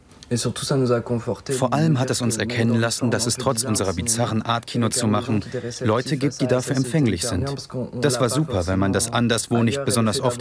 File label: Voice Over; Arte